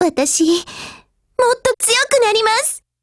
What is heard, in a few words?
FEED JP